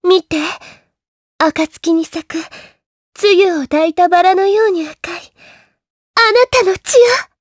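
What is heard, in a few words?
vox dialogue, voices, Japanese; Erze; kingsraid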